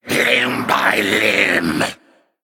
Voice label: Male Wraith Attack